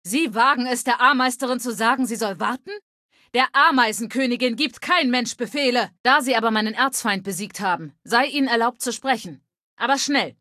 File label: female adult